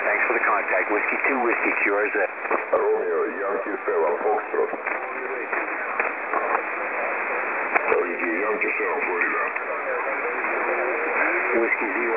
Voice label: qso; 14249khz